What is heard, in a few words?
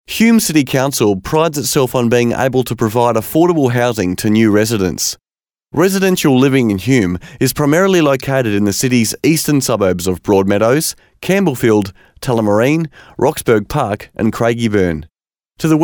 Austrailian; foreign languages